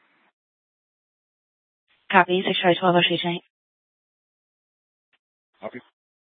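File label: police dispatch radio, scanner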